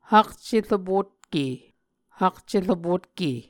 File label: "hakceropotke", muscogee